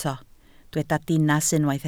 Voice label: "dinas", Welsh

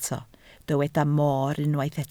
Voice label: "moor", Welsh

